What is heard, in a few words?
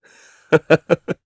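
Vox Happy, Japanese; Riheet; kingsraid audio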